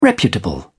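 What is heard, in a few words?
"reputable", British English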